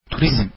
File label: tourism, turkish